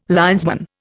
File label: "linesman", Indian